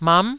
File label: "mam9", Taiwanese syllable